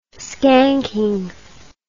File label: "skanking", words